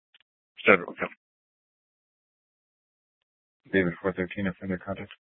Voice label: Superstition Dispatch, Mesa PD; TOPAZ Regional Wireless Cooperative (TRWC) - Mesa Simulcast; 4 Superstition Dispatch